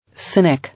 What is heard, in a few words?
"Cynic", English